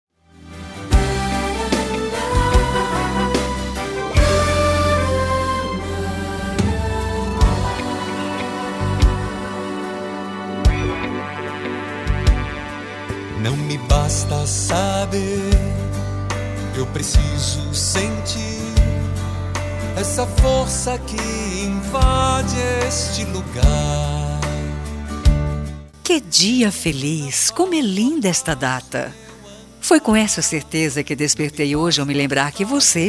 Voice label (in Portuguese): ANIV RELIGIOSO FEM, Telemensagem; telemensagem aniversario religioso